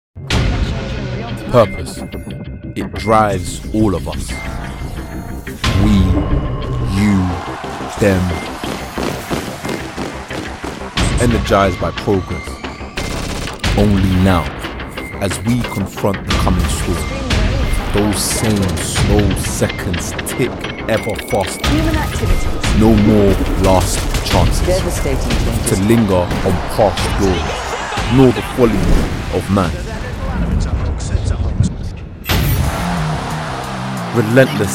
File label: male english racing promo; envision